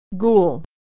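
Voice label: "ghoul", American English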